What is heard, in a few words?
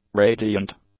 "radiant", English; adjectives